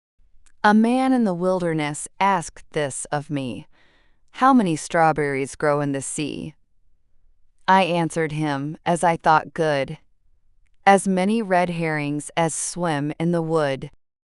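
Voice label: female US; A Man in the Wilderness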